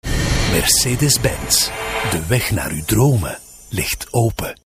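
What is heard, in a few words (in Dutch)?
flemish male commercial; dutch; voice demos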